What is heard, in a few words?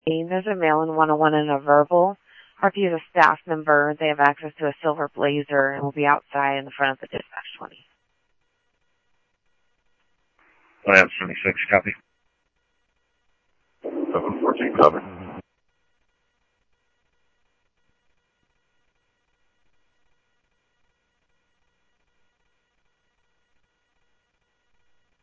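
Mesa Police Department, scanner audio; MESA PD Superstn MesaPoliceDep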